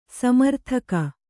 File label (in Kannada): "ಸ್ಮರೋದಕ", Kannada